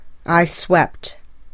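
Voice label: "I swept", English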